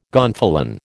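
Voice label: "gonfalon", American English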